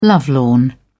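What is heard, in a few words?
"lovelorn", British English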